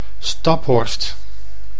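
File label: "Staphorst", Dutch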